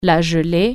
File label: jelly, french